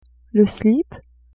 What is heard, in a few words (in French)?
"slip", French; vetements